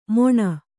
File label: "ಮೊಣ", Kannada